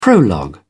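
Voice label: "prologue", British English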